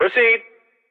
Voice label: Intercom; Mirror's Edge (2008); Unused Assets; The Boat